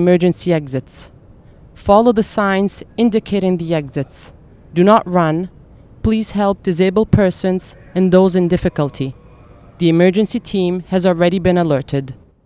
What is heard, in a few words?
EVAC IT ENG; Messaggi; Bosch; AUDIO-EVAC